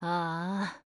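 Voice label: LOW MOOD Japanese; Excutioner; Halloween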